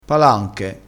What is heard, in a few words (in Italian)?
"palanche", crespinese